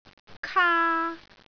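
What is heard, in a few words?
"kaa1", Cantonese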